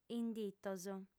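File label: "inditos", Sardinian, female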